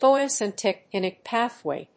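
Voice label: "photosynthetic pathway", English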